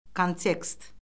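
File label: "kontekst", Azerbaijani; Orfoqrafiya Lüğəti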